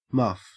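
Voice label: "muff", Australian English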